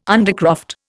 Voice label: "undercroft", Indian